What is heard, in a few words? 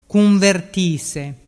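"cunvertise", Vernazzese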